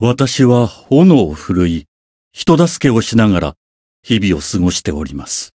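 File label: Voice story, Japanese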